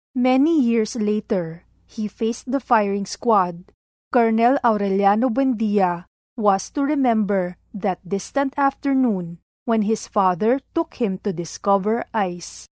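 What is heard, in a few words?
Tagalog Female English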